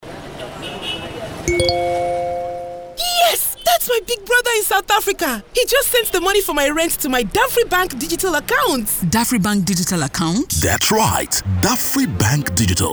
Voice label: english african promos